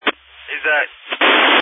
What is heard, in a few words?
Family Radio Service (FRS)